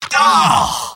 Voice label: scout negative vocalization; tf2; mvm

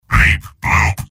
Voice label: soldier robot; mvm

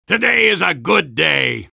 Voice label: soldier cheers; vo; tf2

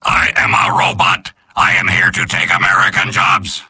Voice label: soldier robot; mvm

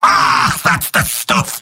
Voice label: demoman positive vocalization; tf2; garrysmod; mvm

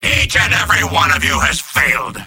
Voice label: soldier jeers; mvm

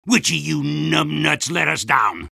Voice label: soldier jeers; vo; tf2

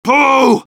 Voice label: medic jeers; vo; tf2

